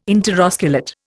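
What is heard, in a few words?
"interosculate", Indian English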